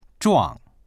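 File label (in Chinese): "zhuang" Chinese; 撞; ぶつかる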